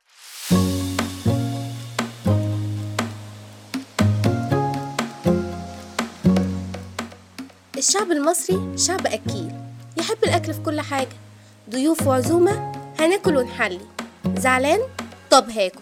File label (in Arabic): اعلان; voice over